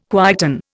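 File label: "quieten", Indian English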